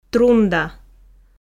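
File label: "трунда", Komi, female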